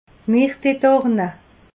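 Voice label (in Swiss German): "mirtedorne", Alsatian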